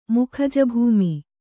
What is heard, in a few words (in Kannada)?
"ಮುಖಜಭೂಮಿ", Kannada